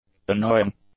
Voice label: "annoying", English; adjectives